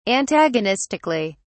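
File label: "antagonistically", British English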